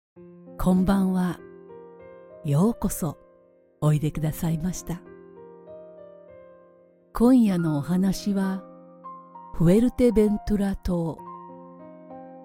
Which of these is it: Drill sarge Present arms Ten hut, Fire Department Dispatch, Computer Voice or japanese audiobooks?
japanese audiobooks